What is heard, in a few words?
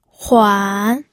"huan3", pinyin audio